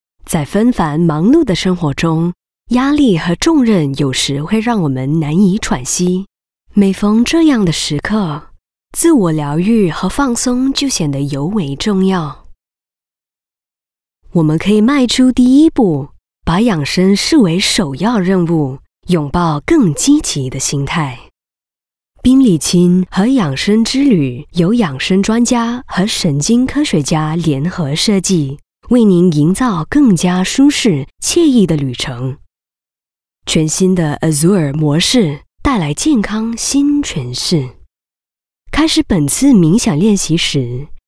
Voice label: Mandarin; Bentley WellNest